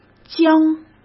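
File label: "将", Mandarin Chinese; chinese-flashcards; hsk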